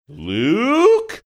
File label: funny